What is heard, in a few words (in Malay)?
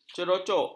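"cerocok", Malay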